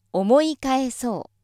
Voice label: female